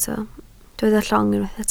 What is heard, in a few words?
"llong", Welsh